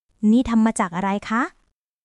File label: Thai, basic thai phrases